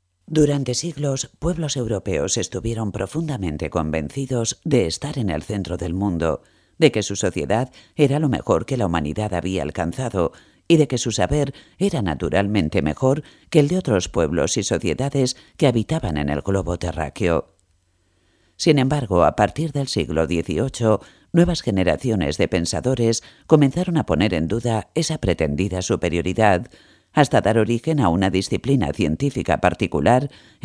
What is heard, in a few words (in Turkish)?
Ispanyolca Kadin Ses; seslendirme